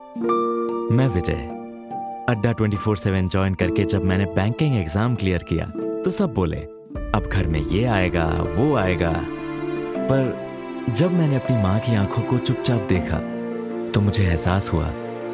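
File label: Hindi, Commercial; voice demos; GoLocalise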